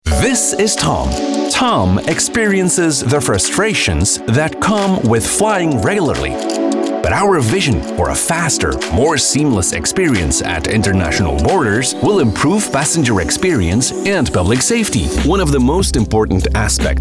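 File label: Flemish, male, English, narration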